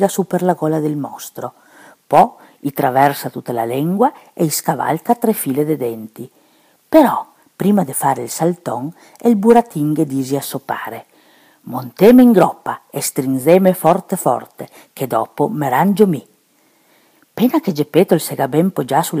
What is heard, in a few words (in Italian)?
Pinocchio Bisiaco